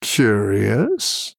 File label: Male Human Idle